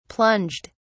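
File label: "plunged", British English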